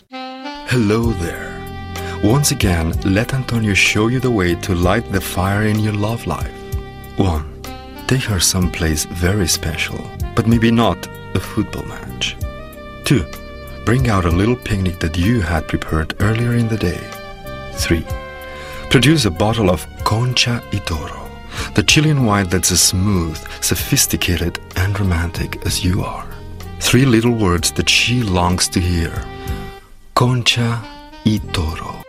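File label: Catalan, Accented English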